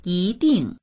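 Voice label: "yi2ding4", Mandarin Chinese; 一定; 必ず～、きっと～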